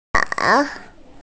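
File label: "lang2", Mandarin Chinese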